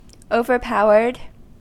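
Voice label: "overpowered", American English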